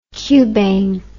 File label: "cubane", english